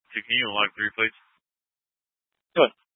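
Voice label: sheriff dispatch radio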